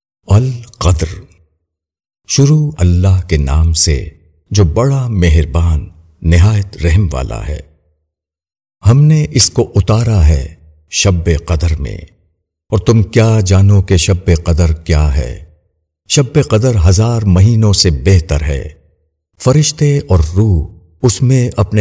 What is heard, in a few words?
URDU TARJUMA E QURAN; 097 AL QADR; Inzaar